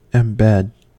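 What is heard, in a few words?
"embed", US English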